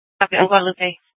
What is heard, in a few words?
Superstition Dispatch, Mesa PD